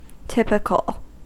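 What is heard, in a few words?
"typical", American English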